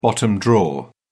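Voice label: "bottom drawer", British English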